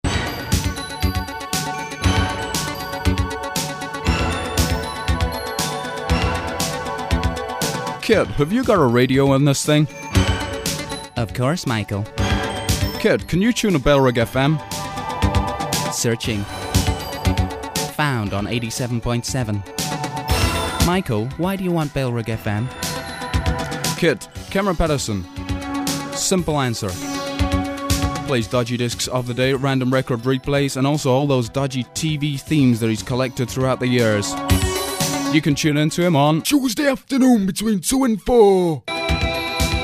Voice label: promo; cam promo - knight rider (banned) tues 2-4